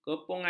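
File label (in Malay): "kepungan", Malay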